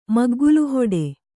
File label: "ಮಗ್ಗುಲುಹೊಡೆ", Kannada